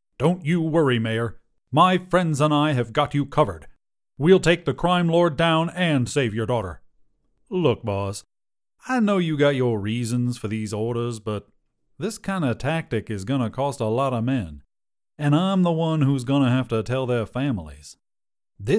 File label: US Characters